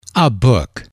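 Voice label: "a book", male, English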